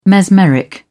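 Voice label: "mesmeric", British English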